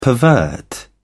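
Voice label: "pervert", British English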